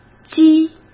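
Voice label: "积", Mandarin Chinese; hsk; chinese-flashcards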